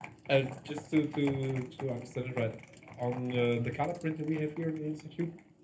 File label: conv meeting far city; snmf